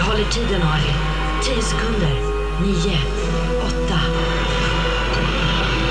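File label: countdown, swedish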